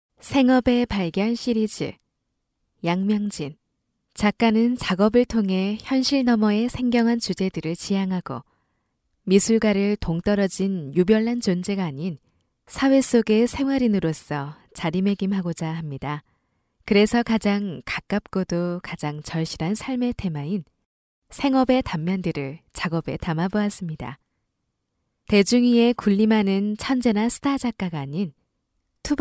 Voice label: korean f

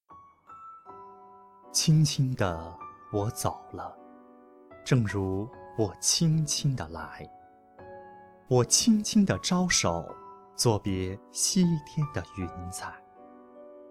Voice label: poem

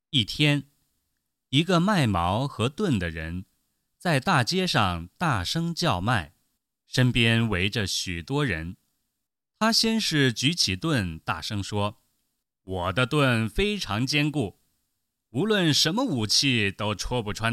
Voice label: story

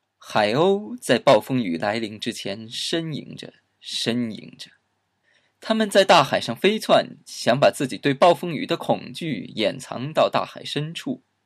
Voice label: story

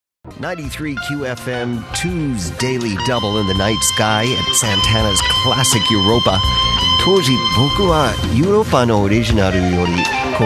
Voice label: dj

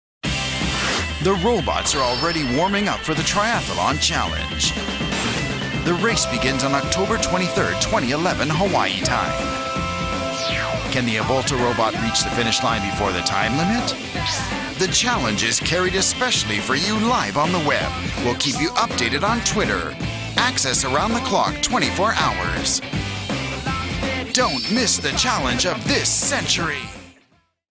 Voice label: narration

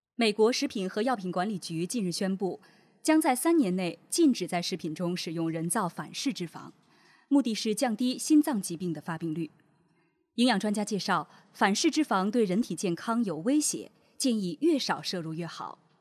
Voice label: news

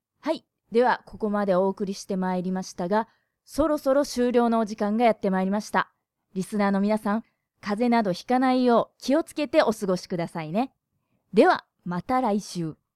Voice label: radio

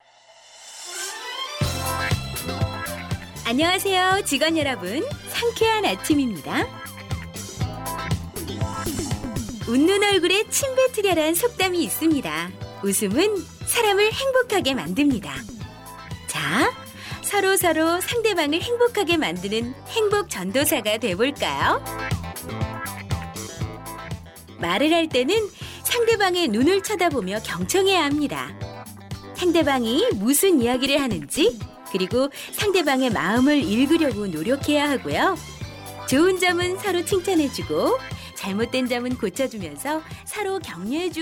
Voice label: korean f

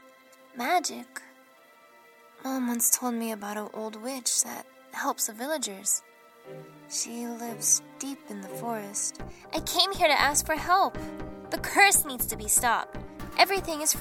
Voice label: story

